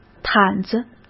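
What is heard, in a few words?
"毯子", Mandarin Chinese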